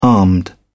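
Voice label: "armed", British English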